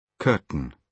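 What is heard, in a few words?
"curtain", British English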